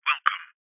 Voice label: human voice call centre telephone recording male uk says welcome